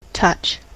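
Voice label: "touch", US English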